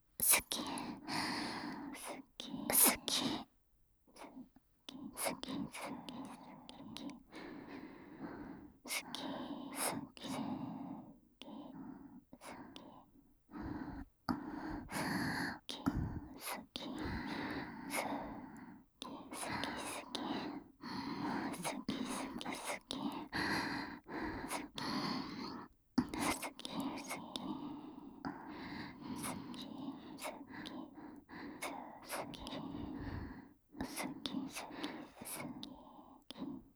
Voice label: 溺愛双子姉の密着囁き好き好きW肉布団添い寝ループトラック, ASMR

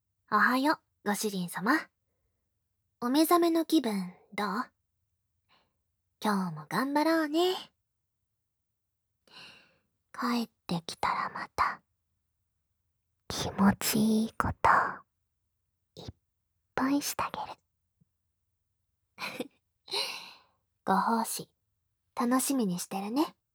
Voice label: 目覚ましボイス

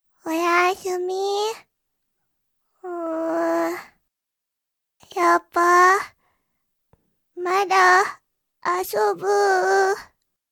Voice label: 火曜 娘, おやしゅみ

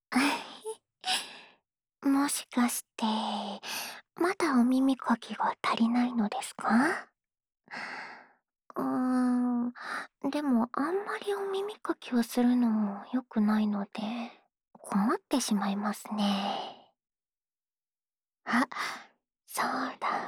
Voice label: オノマトペ(コシコシボイス)(左), ASMR

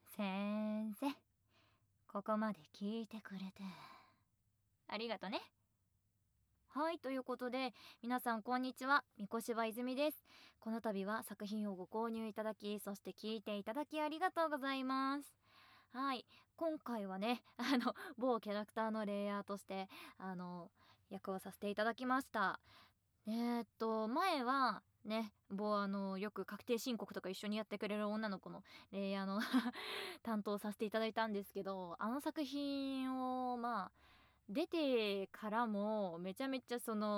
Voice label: フリートーク; SEなし

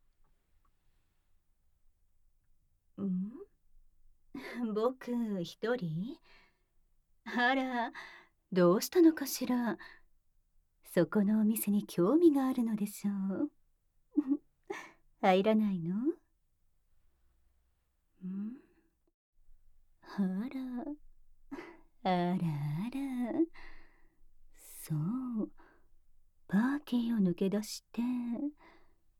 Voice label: シコシコ ボイス オナニー, ASMR

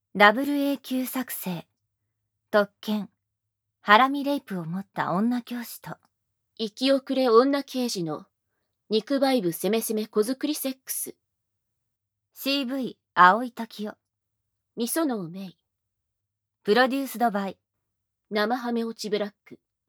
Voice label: タイトルコール, ASMR

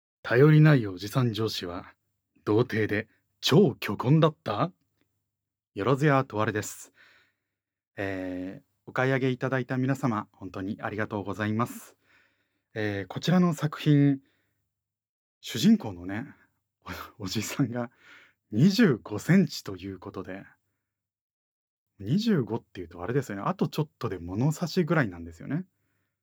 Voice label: フリートーク

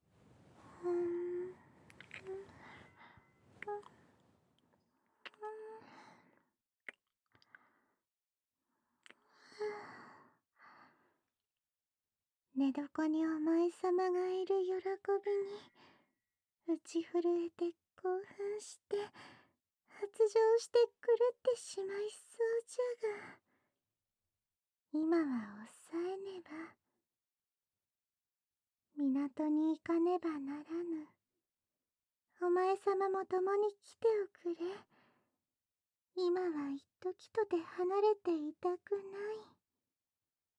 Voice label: 密着ヒソヒソエッチ; ？日後 明るい地上で密着ヒソヒソエッチ

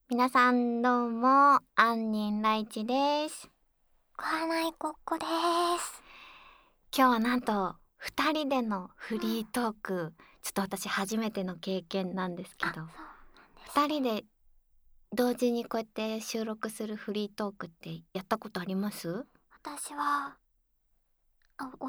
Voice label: フリートーク

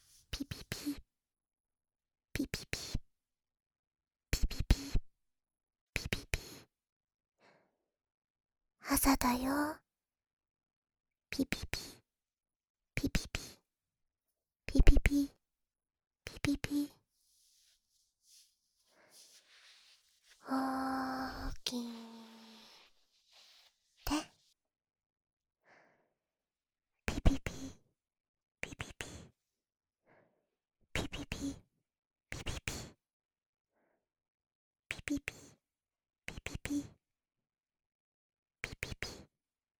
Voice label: 優しいお姉さんからの目覚まし音声; キノコ通常版

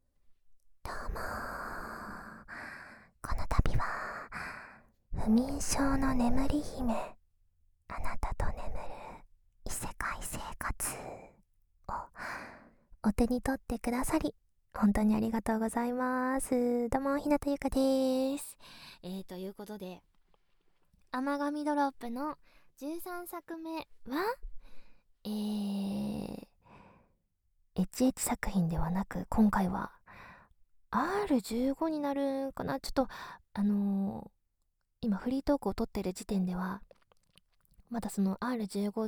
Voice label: 不眠症の眠り姫フリートーク, ASMR

